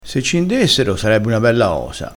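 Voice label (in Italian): "indessero", vocabolario dialettale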